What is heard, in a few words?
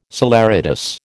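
"saleratus", American English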